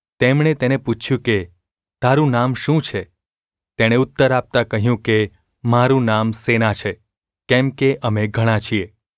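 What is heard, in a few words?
gu ulb mrk, Gujarati; mrk 5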